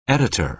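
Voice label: "editor" American English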